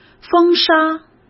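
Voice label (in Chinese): "风沙", Mandarin Chinese; hsk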